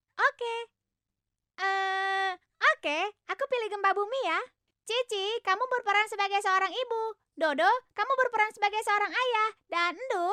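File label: Indonesian Child Voice; voice demos; GoLocalise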